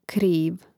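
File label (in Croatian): "kriv" Croatian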